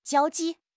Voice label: "jiao1 ji4", 汉语口语; 汉语口语新时代-初级下